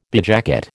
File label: "bedjacket", American